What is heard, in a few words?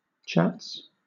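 "chats", British English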